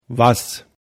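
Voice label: "was", German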